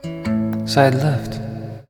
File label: audio channel side left